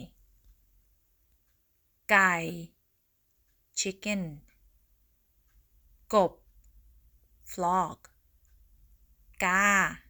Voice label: Learning Thai Words